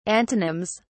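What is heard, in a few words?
"antonyms", British English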